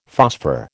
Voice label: "phosphor", American

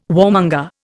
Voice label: "warmonger", Indian

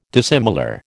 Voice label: "dissimilar", American

